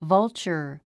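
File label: "vulture", English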